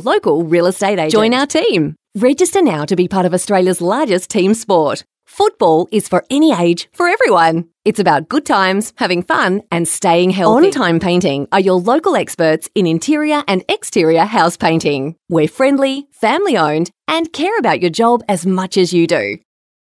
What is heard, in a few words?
Soft Sell